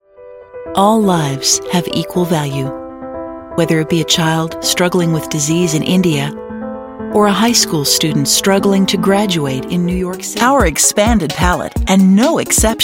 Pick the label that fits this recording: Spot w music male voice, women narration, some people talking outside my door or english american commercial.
women narration